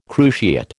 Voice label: "cruciate", American